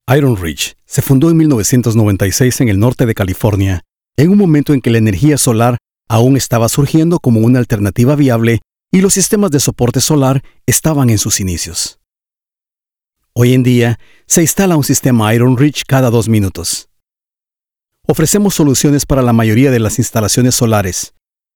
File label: spanish latin american documentaries